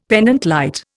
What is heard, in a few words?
"pendente lite", Indian; Dictionary